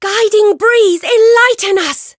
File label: Voice variable chant; veina